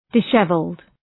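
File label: "dishevelled", English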